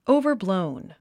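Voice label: "overblown", English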